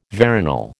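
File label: "veronal", American English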